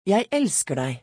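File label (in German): Norwegisch, "Ich liebe dich"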